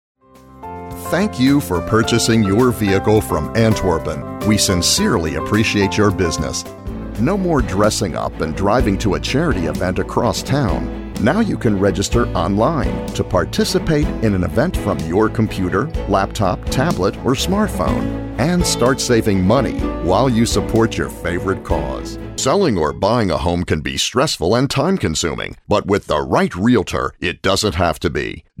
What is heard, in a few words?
Promotions Male Voice Over; Male Voice Over Demo